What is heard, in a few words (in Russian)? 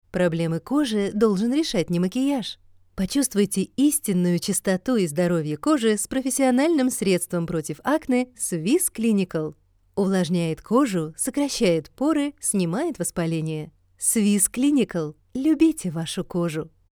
soft ru